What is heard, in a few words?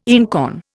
"einkorn", Indian